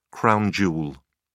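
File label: "crown jewel", British English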